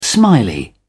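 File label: "smiley", British English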